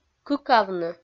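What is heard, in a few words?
"кыкавны", Komi-Zyrian, female; kpv audio dict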